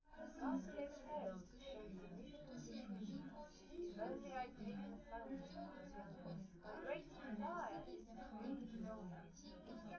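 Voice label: female4 live recording 250ms 1m, underdetermined; SiSEC11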